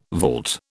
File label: "vault", British